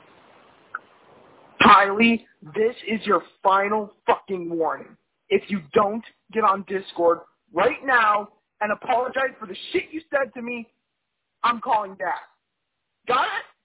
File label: Voicemail